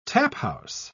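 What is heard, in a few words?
"taphouse", British English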